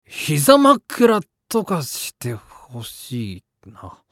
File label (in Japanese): 恋愛系ボイス; 膝枕してほしい